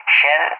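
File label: "Shell", English, Spain; CallSign; DCS; Allied Flight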